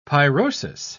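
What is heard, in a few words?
"pyrosis", British English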